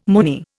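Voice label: "moony", Indian English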